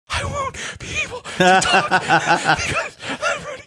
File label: catching his breath; i wont be able to talk because